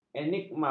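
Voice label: "enigma", Malay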